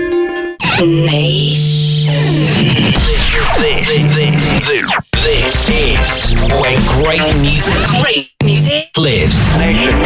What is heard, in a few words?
Radio Imaging